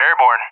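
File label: "airborne", English; DCS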